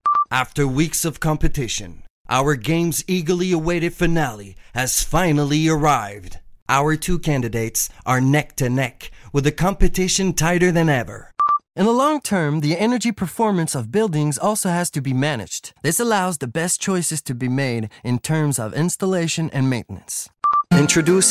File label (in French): Anglais